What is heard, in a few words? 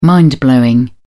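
"mind-blowing", British English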